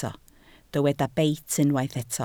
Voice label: "beit", Welsh